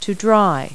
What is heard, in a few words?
"dry", verb; Multi-Lingual Talking Picture Dictionary